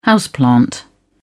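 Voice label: "houseplant", British English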